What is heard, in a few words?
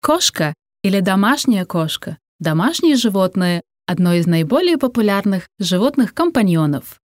RUS